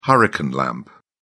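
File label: "hurricane lamp", English, British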